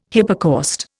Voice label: "hypocaust", Indian